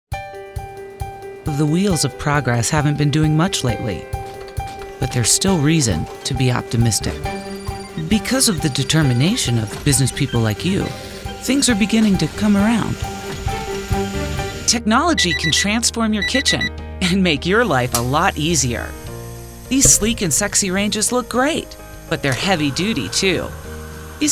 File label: narration VO